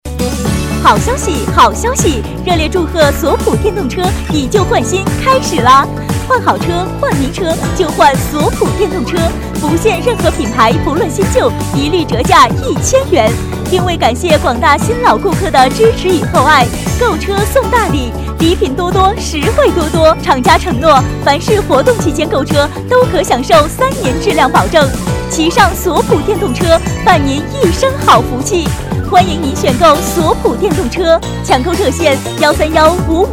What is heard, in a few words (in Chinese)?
促销 电动车音乐 女 激情